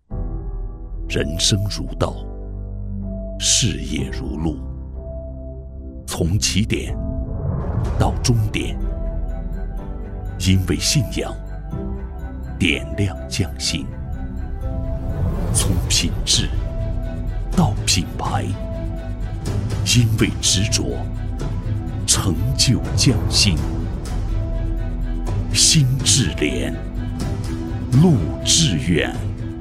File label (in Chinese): 男 质感旁白 匠心筑路初心守廉